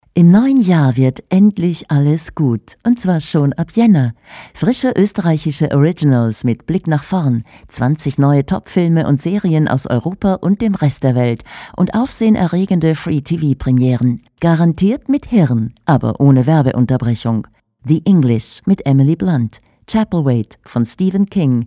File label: confident